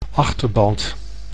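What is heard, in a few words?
"achterband", Dutch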